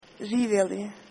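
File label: cheers, Croatian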